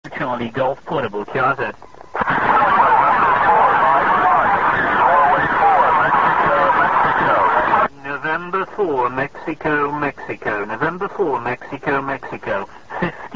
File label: qso